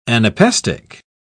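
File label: "anapestic", British English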